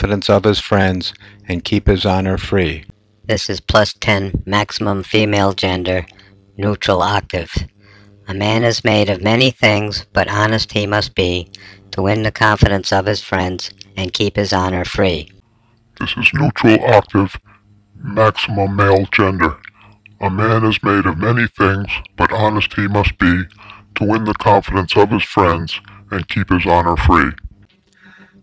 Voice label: Voice Transformer